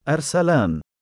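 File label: "2arsalaan" male, Arabic; أَرْسَلَان; アルサラーン; 獅子、ライオン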